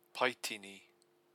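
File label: "paitini", Māori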